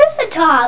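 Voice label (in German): "Guten Tag", German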